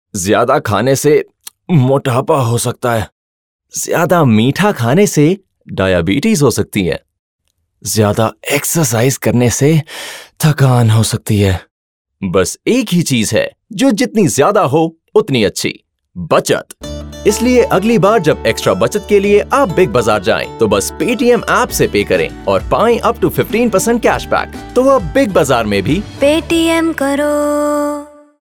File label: Hindi, male voice; Paytm